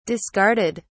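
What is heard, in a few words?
"discarded", British English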